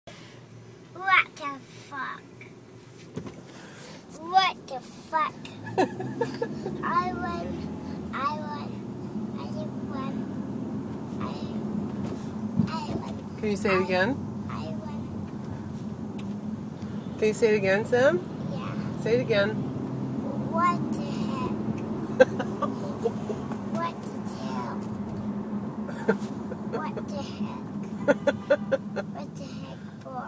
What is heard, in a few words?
Toddler Cursing in the Car